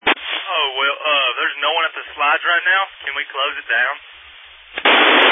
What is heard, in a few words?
Family Radio Service (FRS)